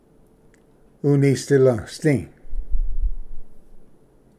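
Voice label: "unisdilvsdi", Cherokee; ᎤᏂᏍᏗᎸᏍᏗ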